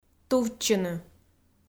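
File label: "тувччыны", Komi, female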